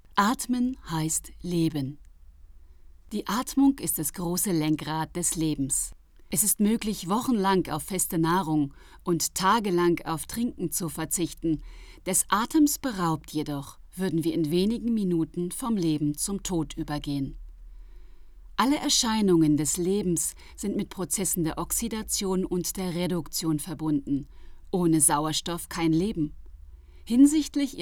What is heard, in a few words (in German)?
Hörbuch Sachbuch Yoga